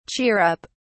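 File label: "cheer up", British English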